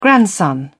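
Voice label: "grandson", English, British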